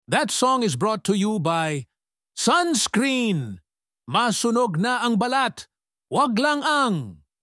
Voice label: sunscreen ads